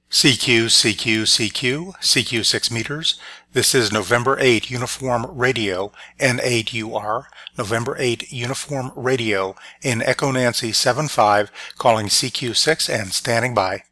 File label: regular cq; hpsdr; station integration